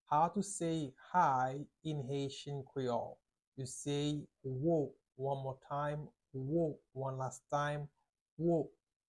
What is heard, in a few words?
How to say High in Haitian Creole Wo pronunciation by a native Haitian tutor